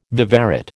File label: "viverrid", American